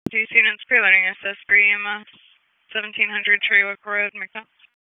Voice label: Police Northeast District Disp, p25